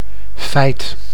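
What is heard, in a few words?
"feit", Dutch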